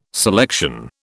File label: "selection", British